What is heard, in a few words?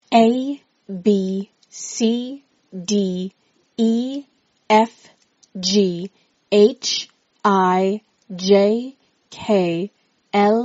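alphabet, American English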